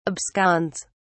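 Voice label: "absconds", English, British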